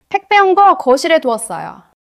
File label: neutral, monologue